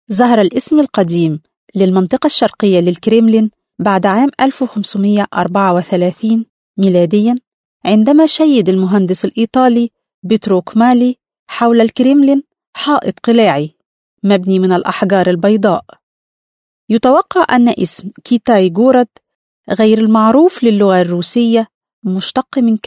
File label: arabic female